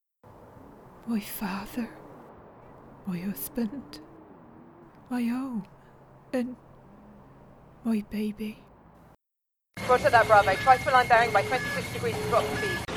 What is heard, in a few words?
audio drama